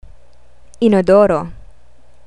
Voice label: "inodoro", Tagalog; vowels